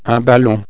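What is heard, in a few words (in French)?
"ballon", French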